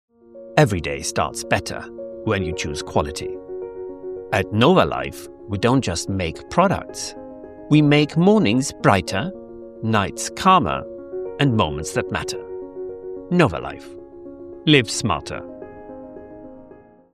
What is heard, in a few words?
English commercial